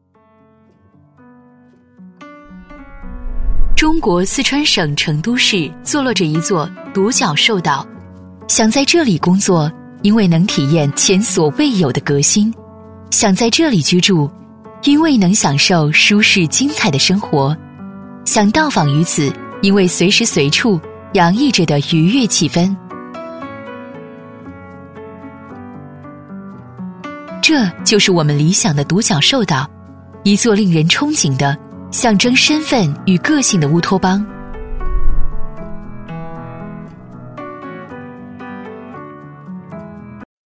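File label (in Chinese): 女S112 国语 女声 专题片 大气 官方 沉稳 沉稳 科技感 积极向上 亲切甜美; 中国成都城市专题片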